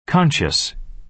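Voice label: "conscious", American English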